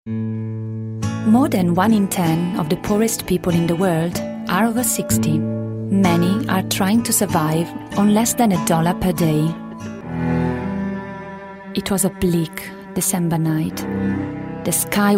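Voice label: Italian Accented English; GoLocalise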